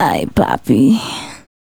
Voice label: Aye Poppi, Vocal Sound Bites; Vocal Toolbox